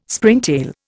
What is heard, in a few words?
"Springtail", Indian